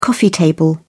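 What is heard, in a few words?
"coffee table", British English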